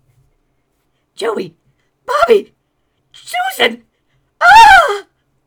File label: Male Begging